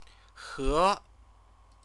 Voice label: "he", Chinese